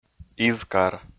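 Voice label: "изкар", Komi, male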